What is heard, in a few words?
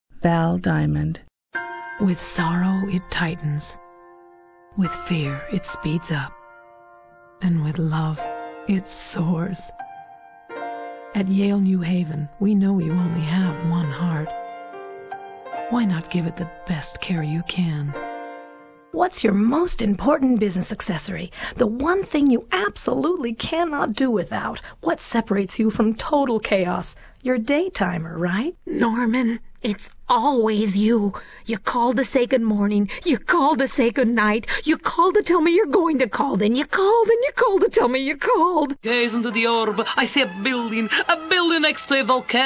female voice